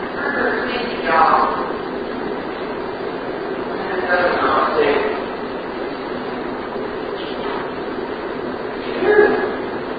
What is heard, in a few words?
Room with Fireplace Unexplained Male Voice Amplified; Safe; Turner Home 2nd Floor